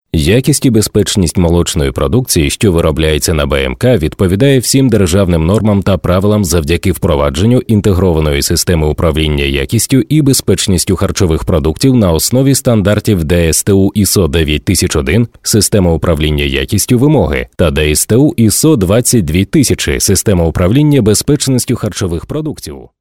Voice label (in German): Sprecher Native speaker